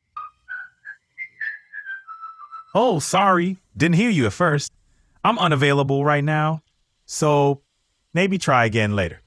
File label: voicemail; en-us